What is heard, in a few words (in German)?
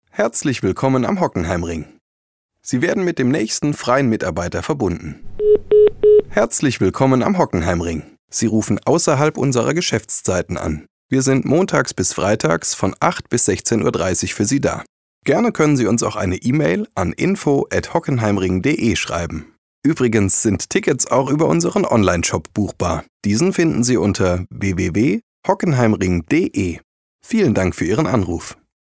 Telefonansage